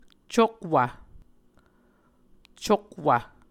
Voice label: "cokwv", Muscogee

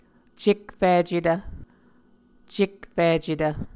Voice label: "cekficetv", Muscogee; Mvskoke Words with Voicing Consonants; A Dictionary of Creek/Muskogee; SIL International

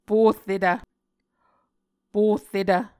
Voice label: "porretv", Muscogee

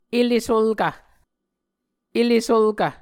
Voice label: "ele sulkv", Muscogee

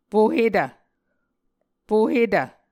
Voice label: "pohetv", Muscogee

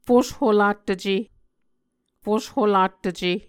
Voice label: "fusholattuce", Muscogee

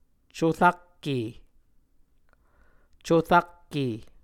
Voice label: "corakke", Muscogee; Mvskoke Words with Repeated Consonants; Onvkv Ohhvyayices; A Dictionary of Creek/Muskogee; SIL International

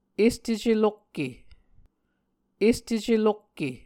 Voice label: "este celokke", Muscogee

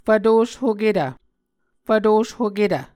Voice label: "fvtoshoketv", Muscogee

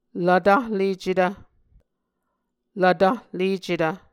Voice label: "lvtahlecetv", Muscogee

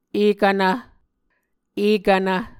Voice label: "ekvnv", Muscogee

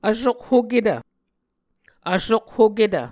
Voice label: "vsokhoketv", Muscogee

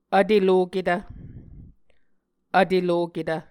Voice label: "vteloketv", Muscogee

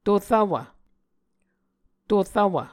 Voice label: "torvwv", Muscogee

